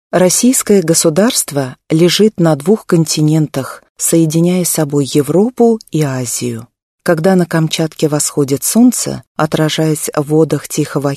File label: russian female